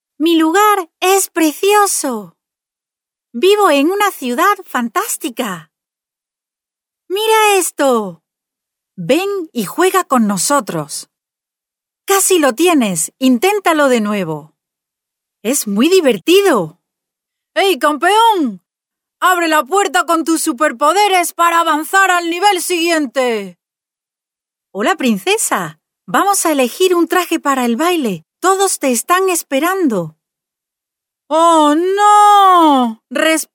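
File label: Spanish female children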